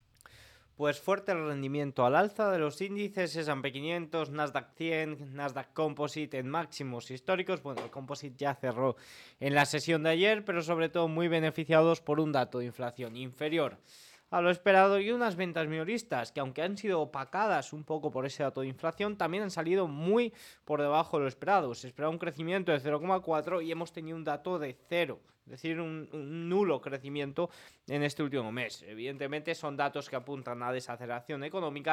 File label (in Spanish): radio; los datos de inflacion impulsan a nuevos maximos; noticias bolsa